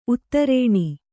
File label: "ಉತ್ತರಣೆ", kannada